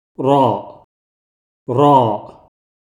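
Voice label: Rāʾ, Arabic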